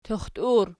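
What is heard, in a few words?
"tuxtur" nominative, Archi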